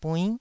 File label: "buinn9", taiwanese Syllables